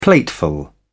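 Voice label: "plateful", British English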